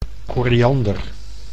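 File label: "koriander", Dutch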